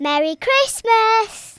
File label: incoming, Speech, Kidz; Worms Armageddon